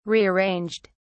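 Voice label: "rearranged", British English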